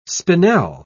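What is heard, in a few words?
"spinel", British English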